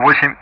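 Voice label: Speech Sound Russian; DCS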